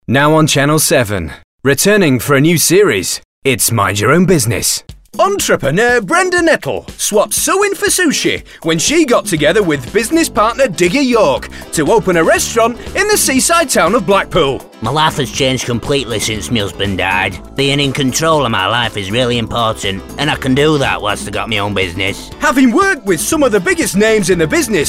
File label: Character Reel